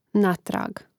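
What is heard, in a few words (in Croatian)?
"natrag", Hrvatski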